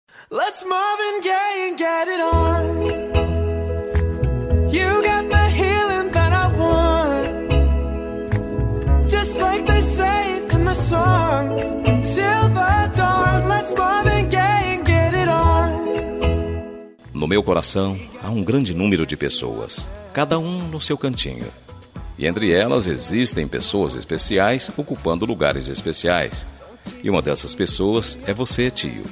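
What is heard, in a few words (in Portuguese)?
ANIV TIO DISTANTE male, Telemensagem Aniversário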